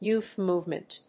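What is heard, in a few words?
"youth movement", English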